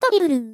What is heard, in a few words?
"triple", vocal; Techmino; miku